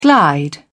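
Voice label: "glide", British English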